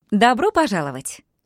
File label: bonjour, voix; ru